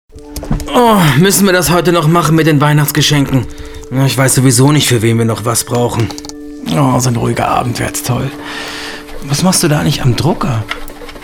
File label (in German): funkspot ticket